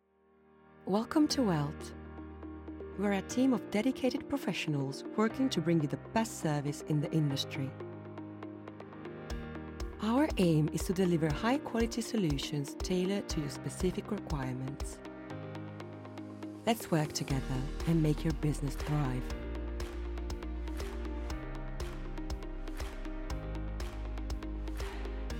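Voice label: British English Corporate; GoLocalise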